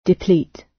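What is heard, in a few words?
"deplete" British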